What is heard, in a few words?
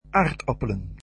"potatoes", dutch